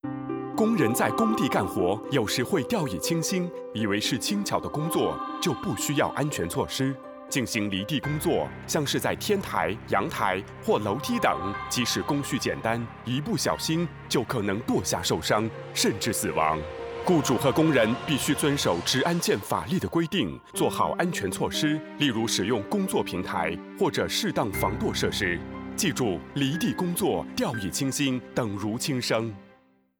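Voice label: mandarin; 離地工作 掉以輕心 等如輕生; 普通話; 30s; LD Work at height Safety radio; 電視宣傳短片及電台廣播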